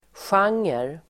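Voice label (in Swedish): "genre", Swedish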